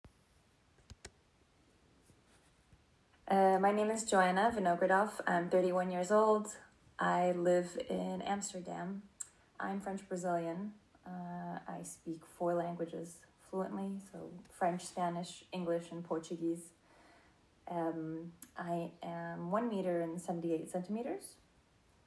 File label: English American Voice